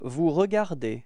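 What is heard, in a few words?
"regarder vous", French; frans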